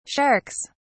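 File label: "sharks", British English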